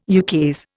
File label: "ukase", Indian